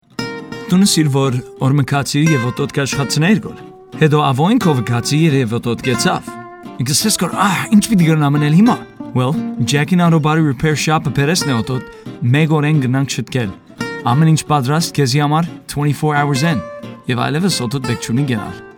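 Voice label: Armenian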